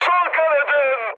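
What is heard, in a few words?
Turkish left wing, mood high, speech; War Thunder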